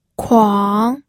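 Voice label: "kuang", third tone, pinyin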